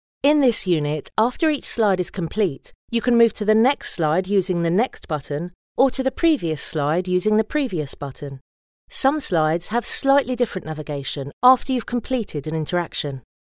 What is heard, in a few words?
E-Learning Navigation